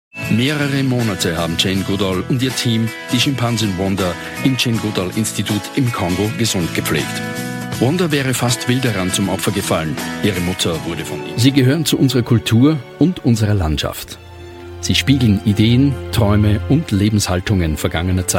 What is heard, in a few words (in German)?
Sprachprobe Doku